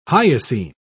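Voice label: "hyoscine", British English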